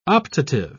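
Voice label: "optative", British English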